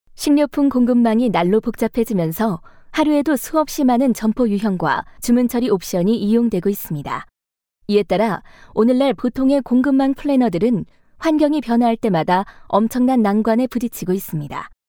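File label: Korean, female, elearning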